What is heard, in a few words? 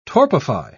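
"torpify", English, British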